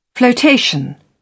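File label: "floatation", British English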